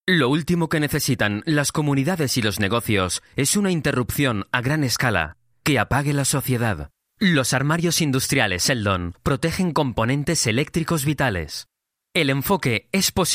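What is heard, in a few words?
Spanish EU, male, corporate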